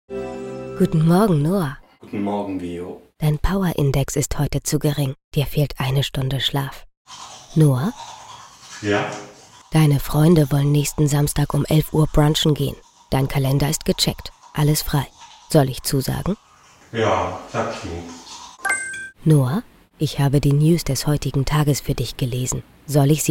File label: A Future Vision Voiceover; AI VIO